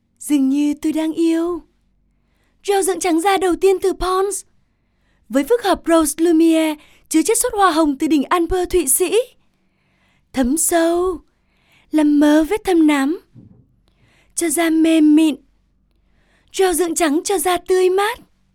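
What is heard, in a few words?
Vietnamese Voice Sample Female North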